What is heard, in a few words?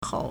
"hóu", Chinese; 喉; throat; gullet; larynx